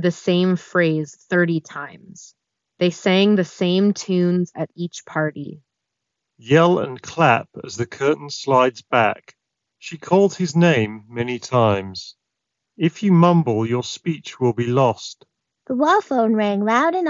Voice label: Everyday Headphones Ideal microphone sample; Raycon Pro

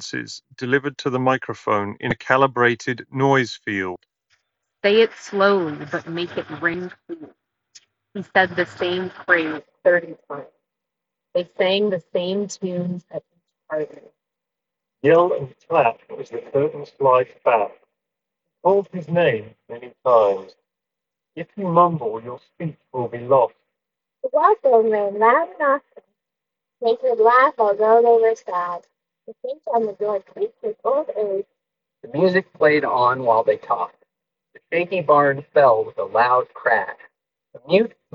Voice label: Sony WF-C710N street

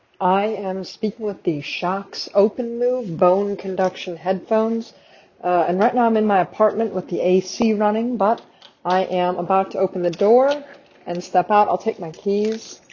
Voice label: nonstandard microphone; Shokz OpenMove

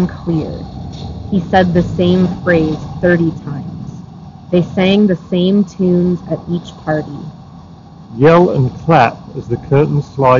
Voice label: Grell Audio TWS1 Street microphone

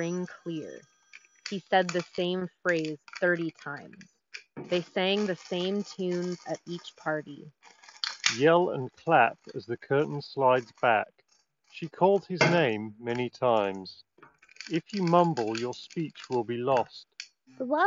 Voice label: Wireless Office microphone sample; JBL Tune 660NC

